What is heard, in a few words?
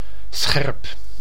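"scherp", Dutch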